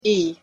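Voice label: "e" pronunciation English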